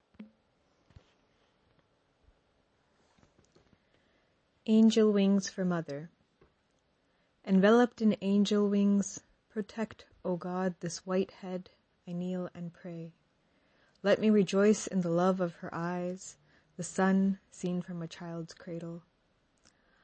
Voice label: english american